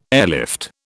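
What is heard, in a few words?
"airlift", British English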